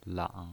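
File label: "lang", pinyin, Mandarin Chinese, third tone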